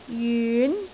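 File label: "jyun2", Cantonese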